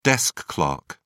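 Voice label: "desk clerk", British English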